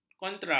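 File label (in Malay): "kontra", Malay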